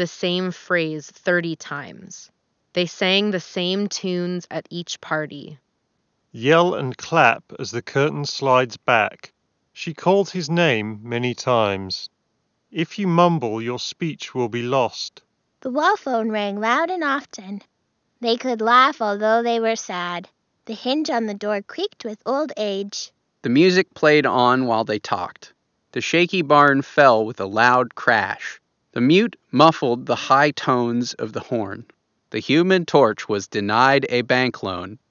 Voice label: Nacon Rig 700 Pro HS Ideal microphone sample